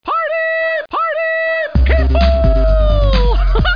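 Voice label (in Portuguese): "oh", Portuguese; GEOGRAFIA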